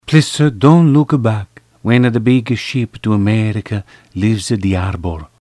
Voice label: italian